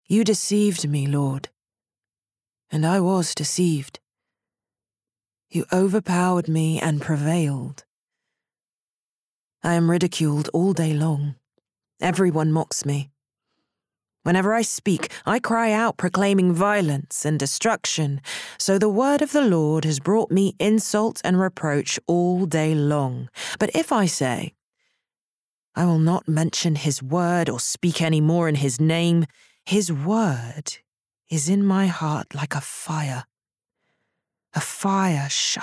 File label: Bookread Bible